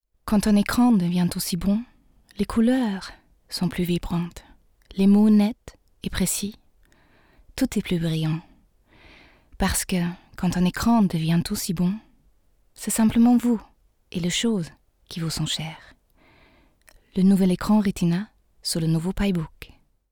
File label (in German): franzoesisch Werbung